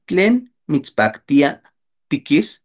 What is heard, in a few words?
"tlen mitspajktia tikis", Náhuatl